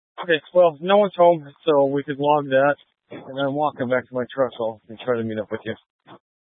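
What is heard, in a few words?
Incident Tac 1, Scanner audio recording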